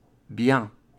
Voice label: "bien", French